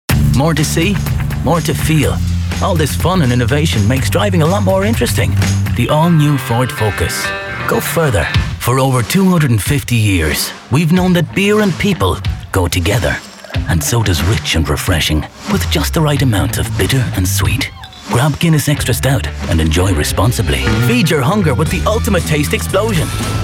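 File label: English Irish Male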